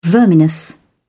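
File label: "verminous", British English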